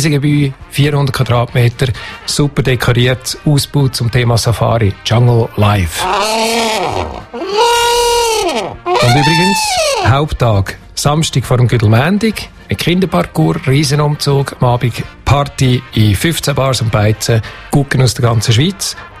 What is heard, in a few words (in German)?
Der Präsident von der goldauer fasnacht im Interview im Radio Pilatus